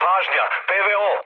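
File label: Serbian damaged aa mood low, speech; War Thunder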